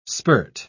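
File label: "spirt", British English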